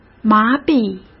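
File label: "麻痹", Mandarin Chinese; hsk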